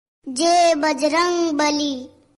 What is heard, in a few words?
Jai Bajrangbali Kid Voice Ringtone